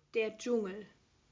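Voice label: "Dschungel", German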